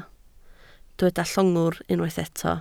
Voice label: "llongwr", Welsh

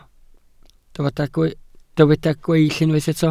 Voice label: "gweill", Welsh

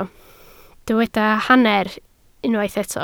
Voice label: "hanner", Welsh

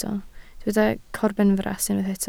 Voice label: "corbenfras", Welsh

